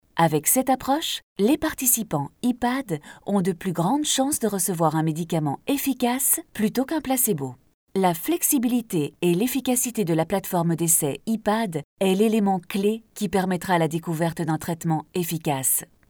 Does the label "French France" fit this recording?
Yes